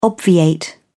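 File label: "obviate", British English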